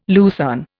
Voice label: "lucerne", Indian